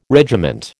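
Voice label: "regiment", American English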